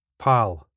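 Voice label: "pal", Scottish English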